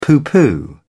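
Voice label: "pooh pooh", British English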